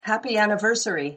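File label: pronunciation "happy anniversary", English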